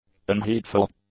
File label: "unheedful", english; adjectives